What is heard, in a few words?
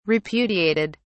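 "repudiated", British English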